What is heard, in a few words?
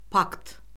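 "pakt", Azerbaijani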